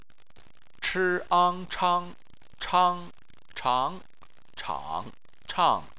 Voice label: "chang", Chinese